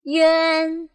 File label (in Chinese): "yuan", pinyin